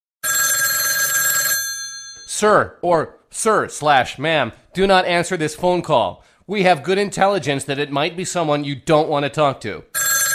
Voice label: Funny cop warning